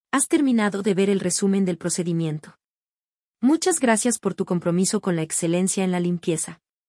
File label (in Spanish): locucion